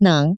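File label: "neng", Taiwan Mandarin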